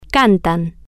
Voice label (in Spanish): "can-tan", Spanish